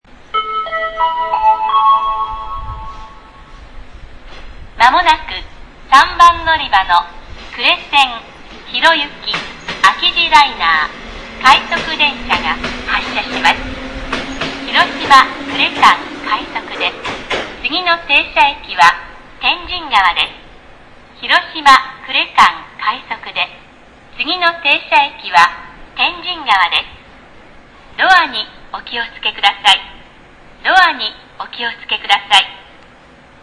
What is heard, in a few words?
hiroshima hassya, ekihousou; 3番のりば発車放送（安芸路ライナー広行き）; sanyo-line; JR西日本-広島駅